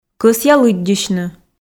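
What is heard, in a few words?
"кӧсъя лыддьысьны", Komi, female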